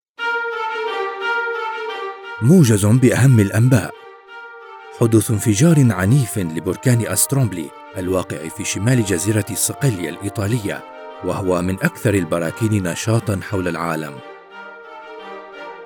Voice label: turkish narration